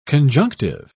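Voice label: "conjunctive", British English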